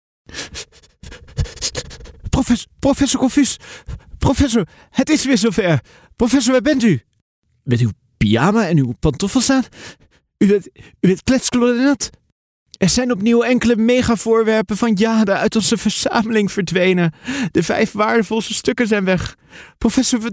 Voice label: dutch animation